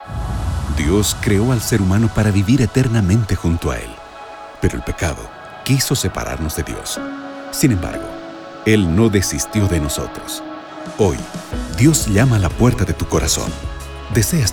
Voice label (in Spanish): es spot libro la ultima invitacion; semana santa